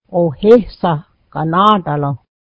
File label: brown bread, mohawk